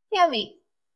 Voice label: VoiceActing es F